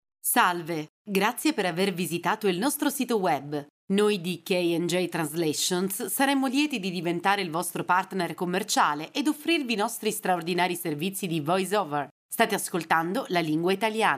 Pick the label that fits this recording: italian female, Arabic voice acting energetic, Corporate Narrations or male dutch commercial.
italian female